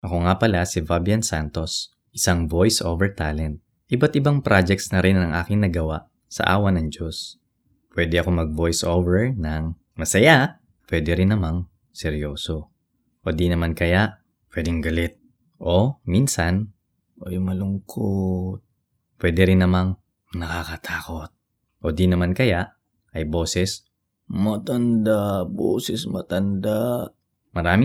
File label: Sample Voice Tagalog